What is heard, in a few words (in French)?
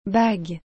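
"bague", French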